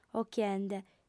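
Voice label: "ochiende", Sardinian, female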